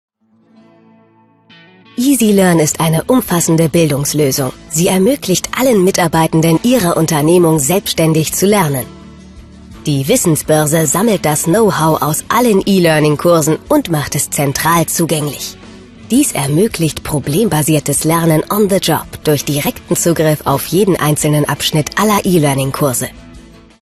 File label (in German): Erklaerfilm